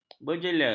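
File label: "berjela", Malay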